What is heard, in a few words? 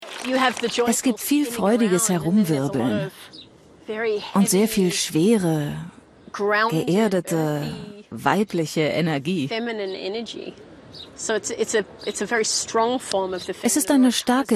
voice over